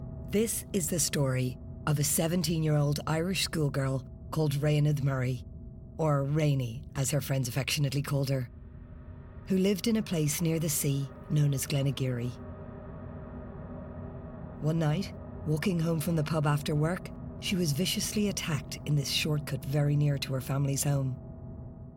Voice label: Narration, True Crime Doc., Irish female voiceover; Rainy in Glenageary